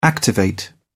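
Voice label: "activate", English, British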